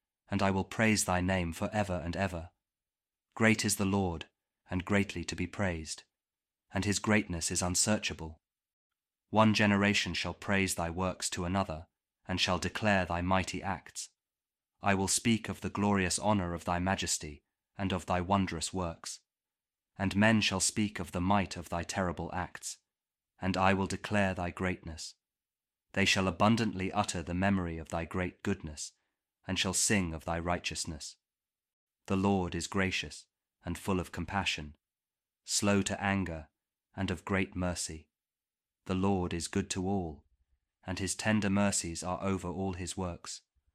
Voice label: psalm kjv king james audio bible